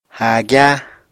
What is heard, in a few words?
"háagyá", Apatani